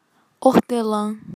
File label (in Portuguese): "hortelã", Portuguese